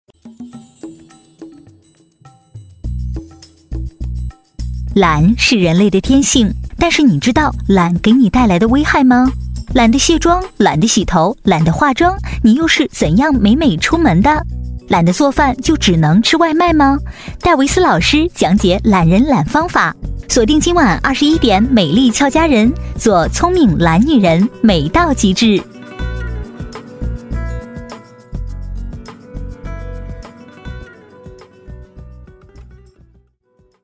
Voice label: 俏皮类轻松随意俏皮; 广告 > 栏目导视

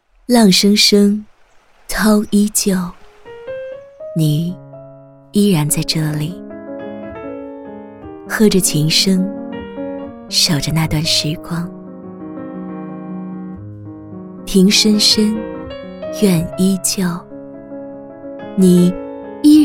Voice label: 城市宣传独白 抒情浪漫唯美 甜度; 鼓浪屿城市宣传; 城市宣传片

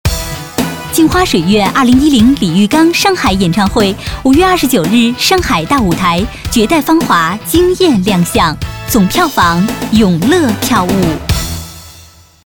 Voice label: 节奏类高雅气质磁性 活动预告

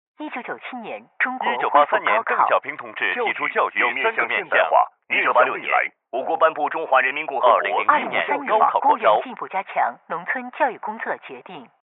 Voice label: 电台; 改革开放

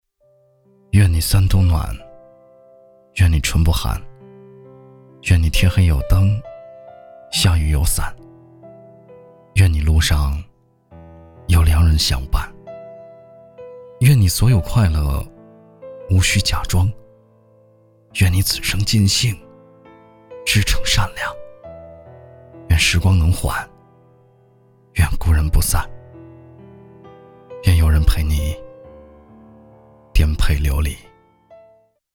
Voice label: 广告 温情; 企业形象

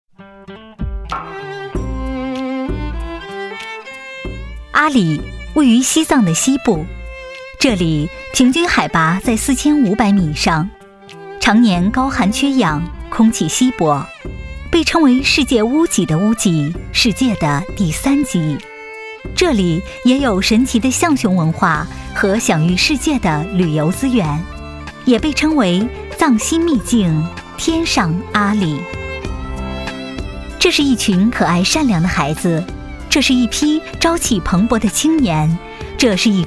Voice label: 记录类; 阿里志愿者

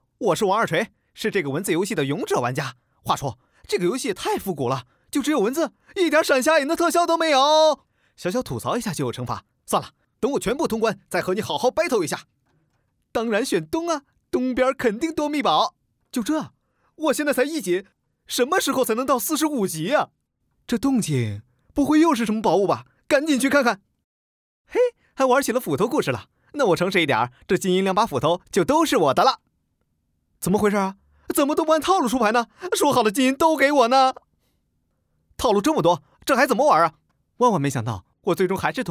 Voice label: 角色 游戏第一人称

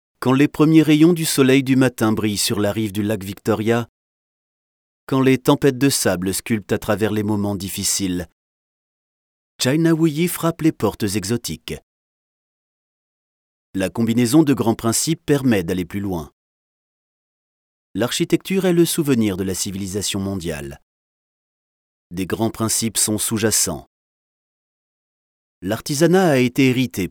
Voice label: 外籍法语男 干音宣传片

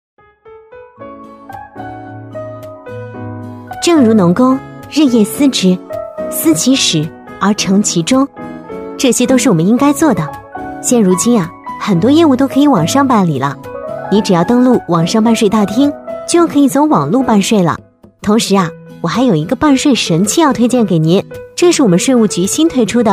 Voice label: 独白 积极向上 女生; 税务女生; 内心独白

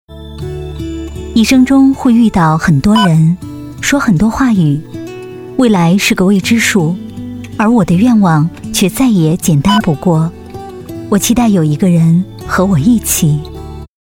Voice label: 独白类-独白-旁白诉说情绪磁性

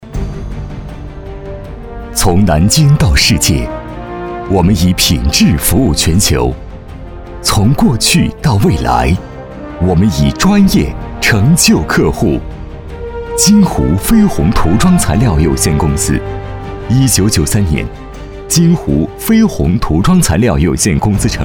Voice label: 涂装集团 沉稳恢弘; 金湖飞虹

